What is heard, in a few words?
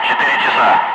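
Russian speech, Ka-50 Wingman; DCS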